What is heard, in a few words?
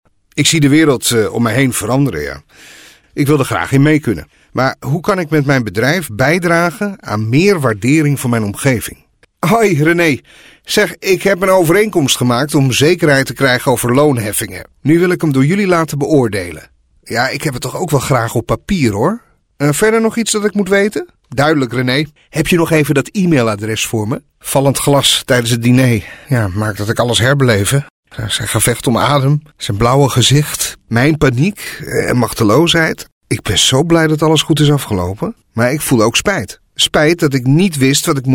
natural acting